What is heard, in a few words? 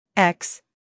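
"x", American English; sounds-of-american-english; everyone-can-use-english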